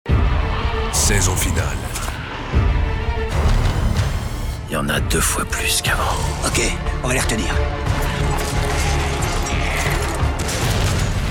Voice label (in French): Voix officielle; Addiktv; The Walking Dead